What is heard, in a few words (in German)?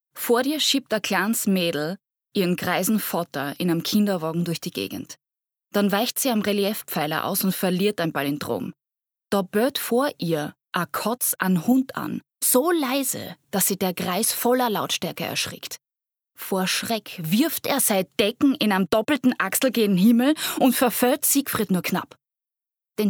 Oesterreichisch; LOFT VOICES